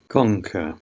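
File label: "konke", Proto-Indo-European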